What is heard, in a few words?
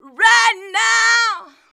"right now", shouts; Best Service; Hyper Dance